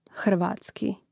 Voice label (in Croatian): "hrvatski", hrvatski